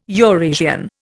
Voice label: "Eurasian", Indian

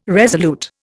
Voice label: "resolute", Indian

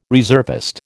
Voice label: "reservist", American

